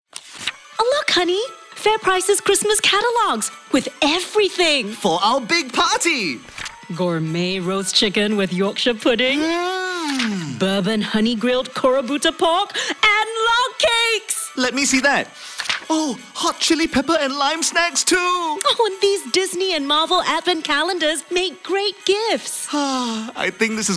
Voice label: Singapore English; Christmas Commercials; Christmas Commercials 2023; Fairprice